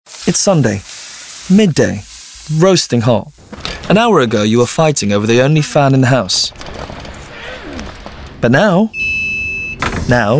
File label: Southern Railway Ad; SOUTHERN RAIL COMMERCIAL